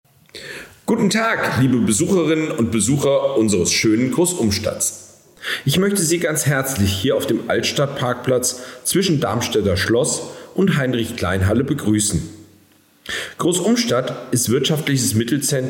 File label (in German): Mundart Begruessung